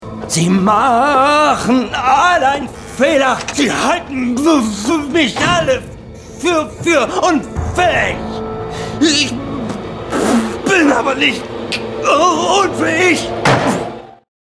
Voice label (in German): Stottern; Profilage